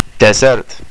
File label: dessert, estonian